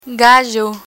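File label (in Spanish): "gallo", Spanish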